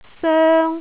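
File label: "soeng1", Cantonese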